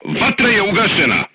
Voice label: voice message fire extinguished, crew tanks serbian